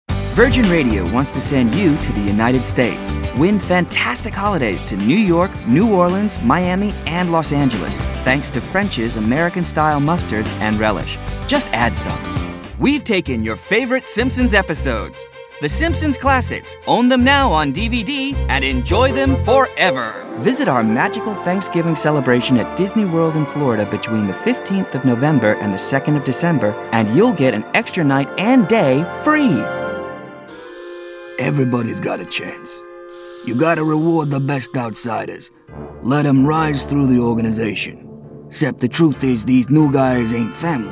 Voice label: Commercial

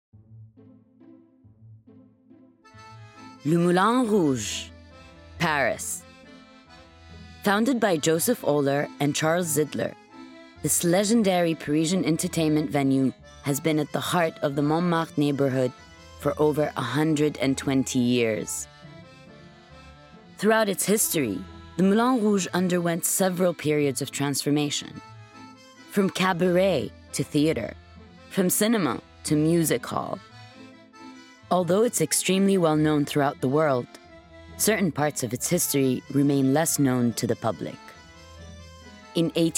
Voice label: Documentary

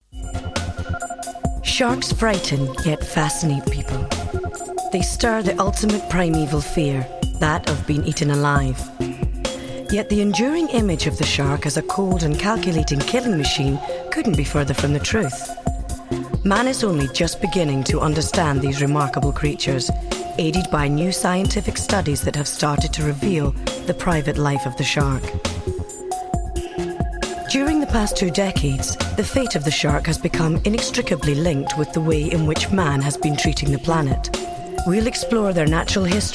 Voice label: Narration

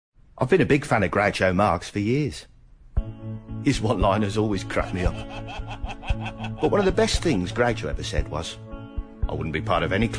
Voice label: Commercials

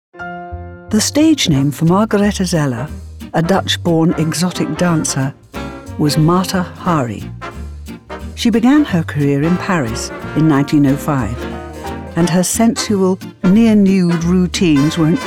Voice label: Documentary

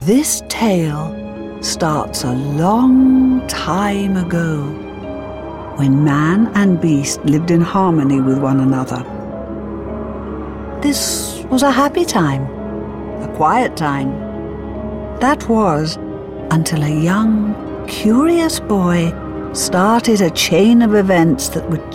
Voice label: Storytelling

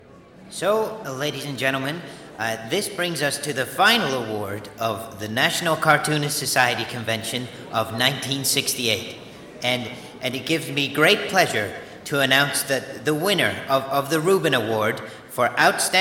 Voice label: Character

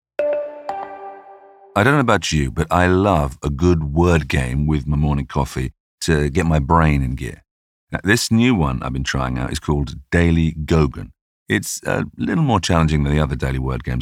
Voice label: Ad Read

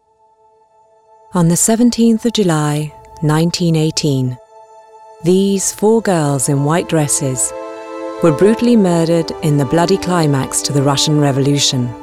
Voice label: Documentary

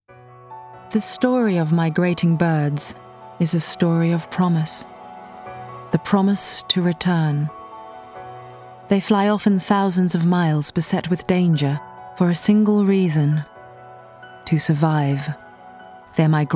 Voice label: Narration

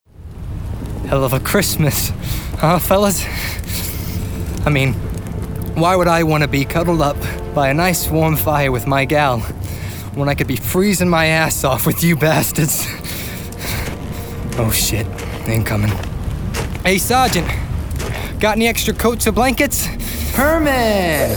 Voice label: Gaming American

